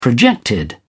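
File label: "projected", British English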